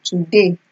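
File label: today, Naija, female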